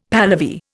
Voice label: "Pahlavi", Indian; Dictionary